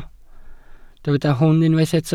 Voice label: "hwn" Welsh

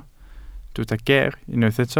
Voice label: "geer", Welsh

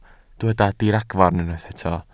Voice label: "diragfarn" Welsh

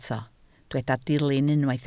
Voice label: "dilyn" Welsh

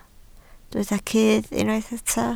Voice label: "cudd", Welsh

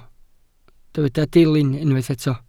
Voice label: "dilyn" Welsh

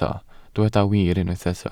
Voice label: "wir" Welsh

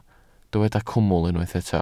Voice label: "cwmwl" Welsh

